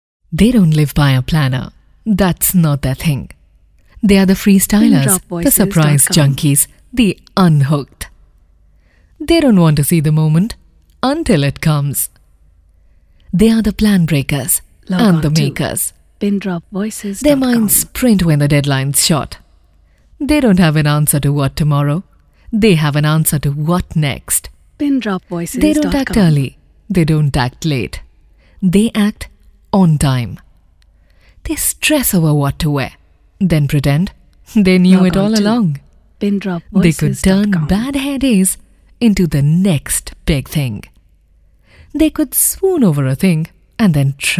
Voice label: English